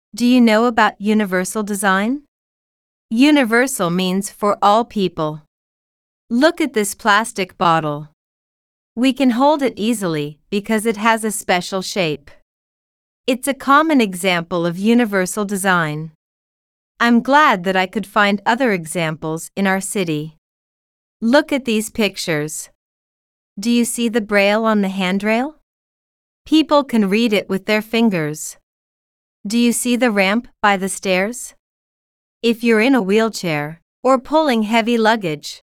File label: English